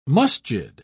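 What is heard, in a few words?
"masjid", British English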